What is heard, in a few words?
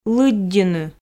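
"лыддьыны", Komi, female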